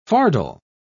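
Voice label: "fardel", British English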